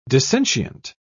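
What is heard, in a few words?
"dissentient", British English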